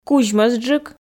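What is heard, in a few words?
"кузьмӧсджык", Komi, female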